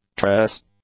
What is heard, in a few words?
"tress", English; nouns